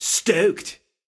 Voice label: vo Stoked; netent; wildwater